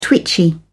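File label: "twitchy", English, British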